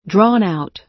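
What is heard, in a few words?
"drawn-out", American English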